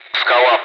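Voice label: Speech Sound Russian Common air traffic control Callsign; ATC; Skala; DCS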